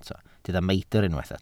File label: "meidr", Welsh